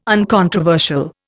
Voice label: "uncontroversial", Indian

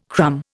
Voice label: "crumb", Indian

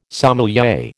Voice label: "sommelier", American

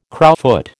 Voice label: "crowfoot", American

